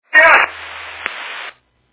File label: Fire Dispatch